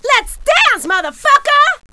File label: fight, female; kingpin loc; blunt